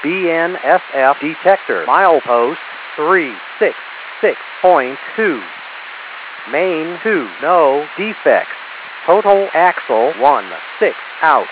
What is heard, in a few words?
defect detector